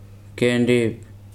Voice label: "dog", Weri